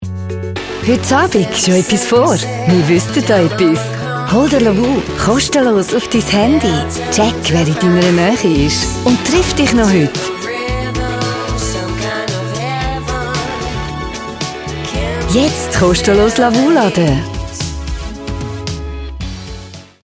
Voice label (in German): werbung, schweizerisch, sprecher